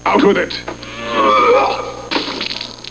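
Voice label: "out", English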